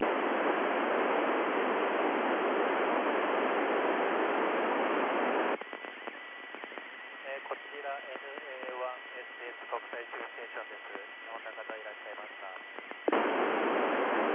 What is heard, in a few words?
iss ippan qso